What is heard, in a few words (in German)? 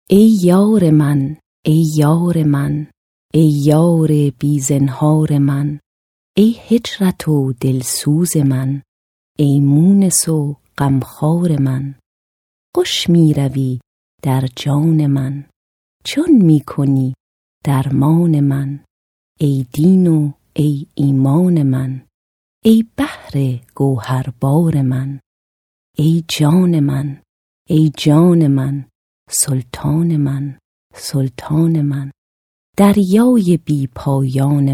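Iran, weiblich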